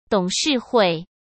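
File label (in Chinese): "董事会", Mandarin Chinese; hsk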